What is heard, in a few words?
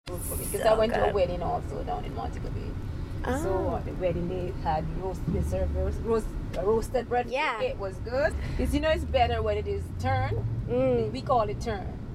Jamaica Female monologue